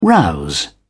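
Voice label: "rouse", British English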